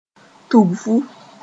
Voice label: "tugfu", moore